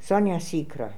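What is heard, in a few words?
"Soniasikra", pronunciations; Fourth Way; Beelzebub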